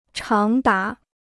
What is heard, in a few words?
"长达", Chinese